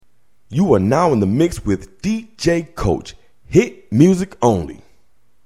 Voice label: DJ Drop; Coach